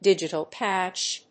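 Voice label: "digital cash", English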